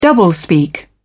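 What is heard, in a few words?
"doublespeak", British English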